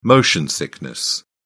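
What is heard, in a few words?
"motion sickness", British English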